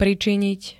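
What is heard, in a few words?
"pričiniť", Slovak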